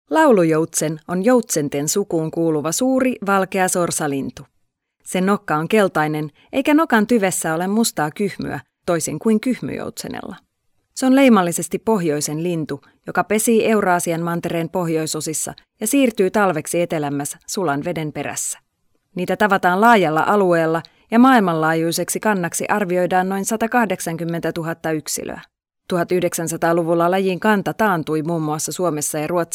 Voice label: female finnish voice over narrative

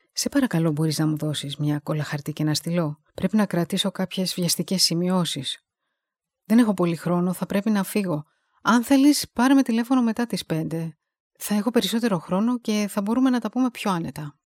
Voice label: female greek voice over character

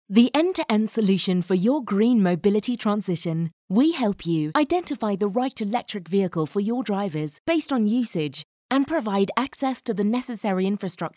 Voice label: female british voice over upbeat; english british

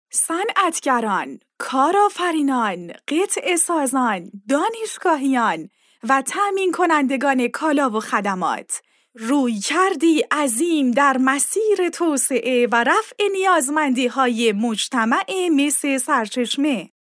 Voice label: female farsi voice over narrative

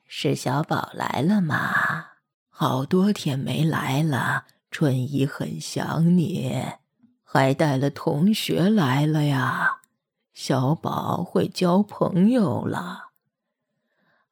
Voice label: female chinese voice over character, mandarin

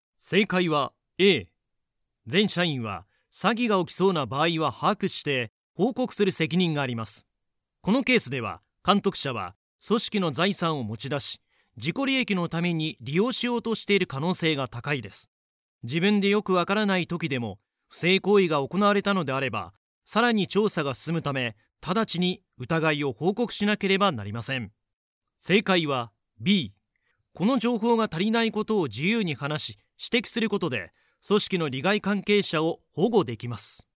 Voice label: male japanese voice over talent narrative

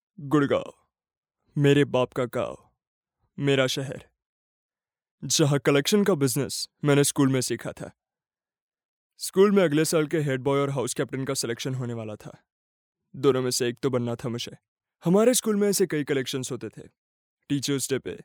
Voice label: male hindi voice over character

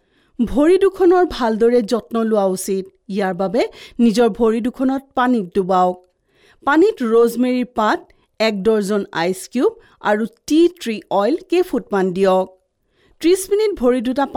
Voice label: female assamese voice over narrative

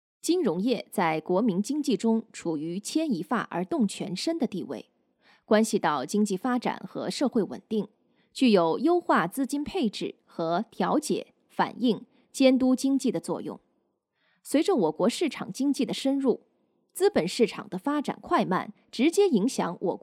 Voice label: female chinese voice over narrative, mandarin

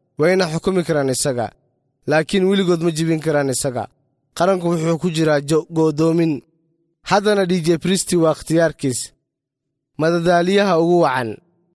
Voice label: male somali voice over talent character

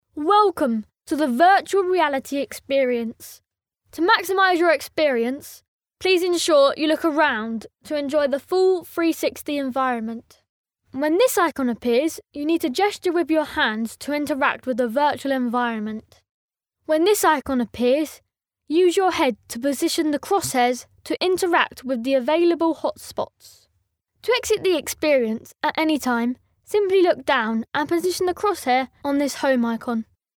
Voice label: boy british voice over upbeat; english british

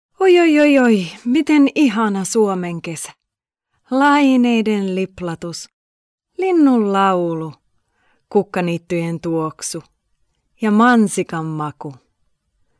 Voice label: female finnish voice over sales

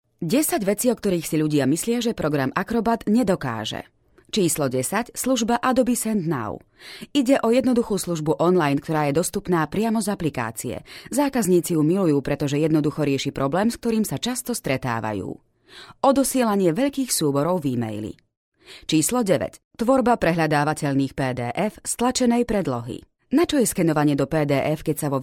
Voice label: female slovakian voice over talent narrative

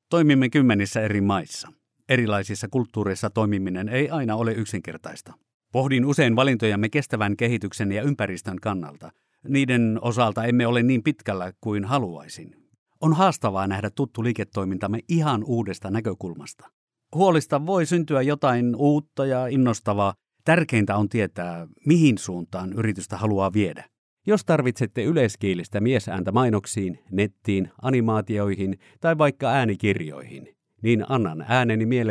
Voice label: male finnish voice over narrative

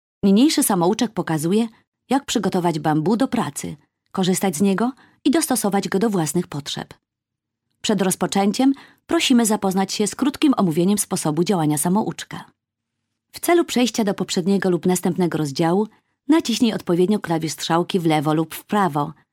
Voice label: female polish voice over narrative

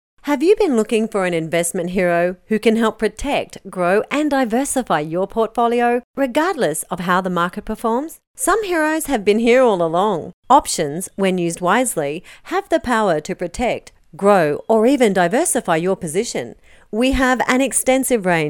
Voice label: female australian voice over narrative

